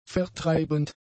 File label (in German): "vertreibend", German; adjectives